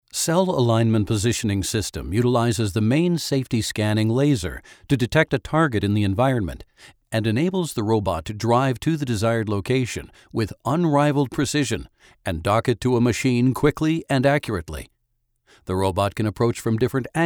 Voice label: Corporate Explainer